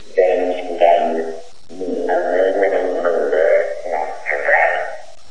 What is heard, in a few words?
mutant intercom, VOCALS and SPOKEN WORD, Robotic or Stuttery or Spooky